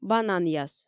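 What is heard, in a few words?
"бананъяс" Komi, female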